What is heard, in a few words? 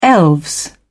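"elves", British English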